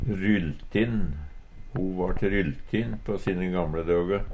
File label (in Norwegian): "rylltin", Numedalsmål; Adjektiv